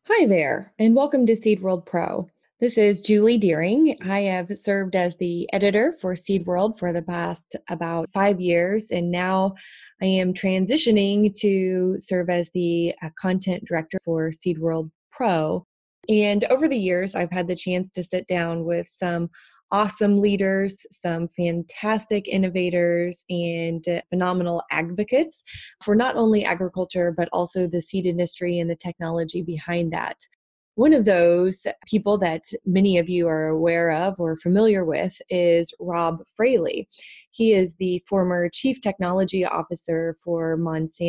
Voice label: Voiceover; Trademark Pitfalls